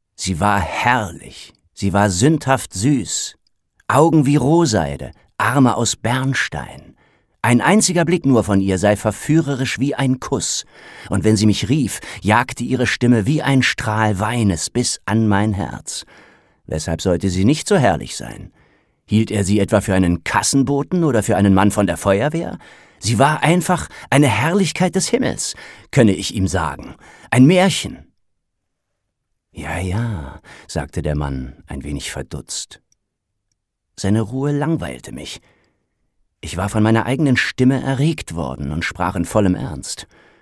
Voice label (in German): hoerbuch; hunger